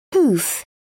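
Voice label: "pouf", British English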